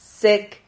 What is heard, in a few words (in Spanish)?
"sec", silabas